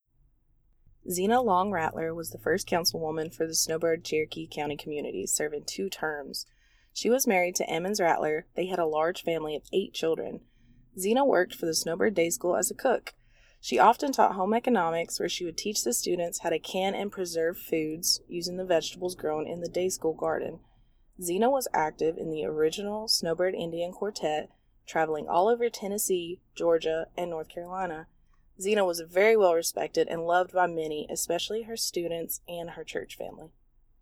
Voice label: english